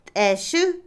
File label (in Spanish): Otomí, mujer; hoz, guadaña; INALI